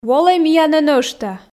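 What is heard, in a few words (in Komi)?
волӧй миянӧ нӧшта, Komi, female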